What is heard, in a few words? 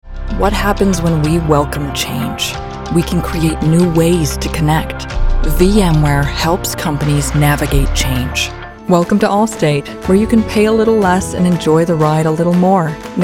Commercial